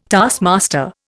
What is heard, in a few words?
"taskmaster", Indian